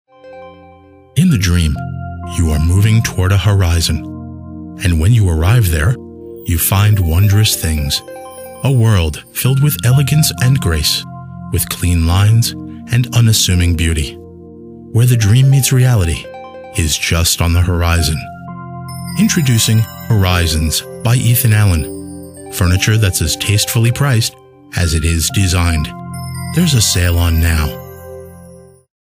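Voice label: english american male voice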